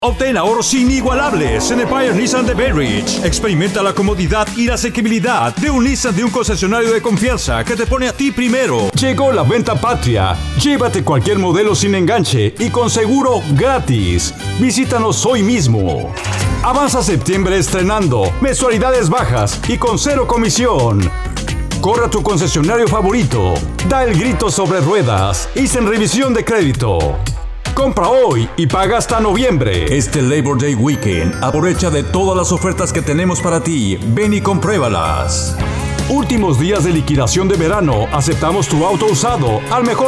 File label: Male